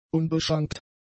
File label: "unbeschrankt", German; adjectives